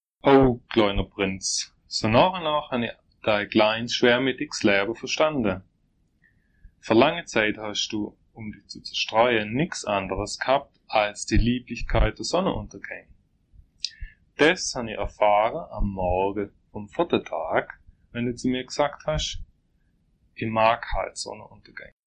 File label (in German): Deutsch Ravensburg